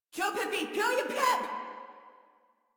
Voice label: Player Spotted Female, Battle Barks; Warframe; Modular Corpus Female